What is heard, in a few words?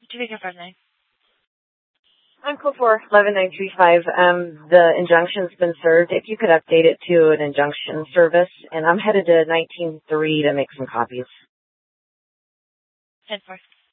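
police department dispatch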